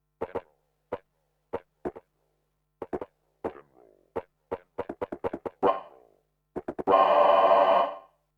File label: telephone voice